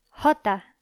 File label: "jota", Spanish